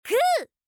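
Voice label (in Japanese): "く" (ku), Japanese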